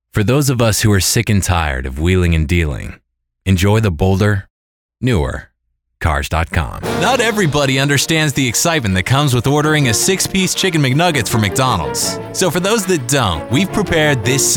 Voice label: Commercial